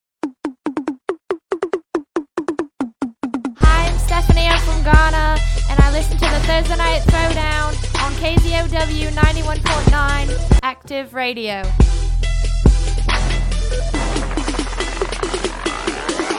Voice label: Liner